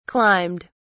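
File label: "climbed", British English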